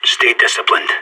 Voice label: pep talk, sas, vo; Counter Strike; CS:GO

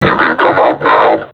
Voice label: taunt, vo combine suppressor; Half Life Alyx

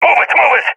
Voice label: request move, balkan; vo; balkan epic

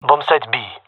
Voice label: gendarmerie male; player vo

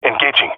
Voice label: attacking, jungle male; agents; jungle male epic

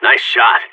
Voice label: nice shot, vo agents seal; Source Model Packs; Counter Strike Model Pack; CS2

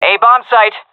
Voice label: seal fem, agents; vo; Source Model Packs; Counter Strike Model Pack; CS2

